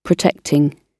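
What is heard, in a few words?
"protecting", British English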